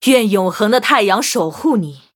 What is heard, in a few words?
Thanks, zh